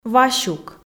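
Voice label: "васюк", Komi, female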